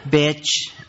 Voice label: "bitch", expletives